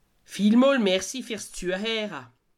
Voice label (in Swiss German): "zueheera", alsace